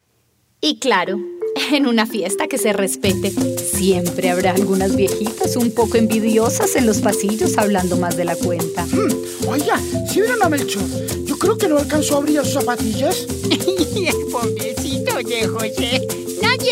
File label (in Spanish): Narración; Ya Viene la Vieja